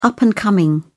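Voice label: "up-and-coming", English, British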